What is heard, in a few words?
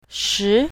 "shi2", pinyin, Mandarin